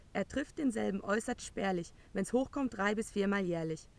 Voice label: room speech 8kHz